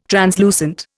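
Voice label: "translucent", Indian English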